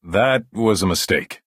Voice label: Breton male oops